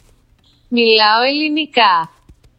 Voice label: Greek